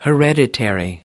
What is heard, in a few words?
"hereditary", us